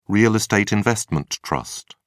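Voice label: "real estate investment trust", British English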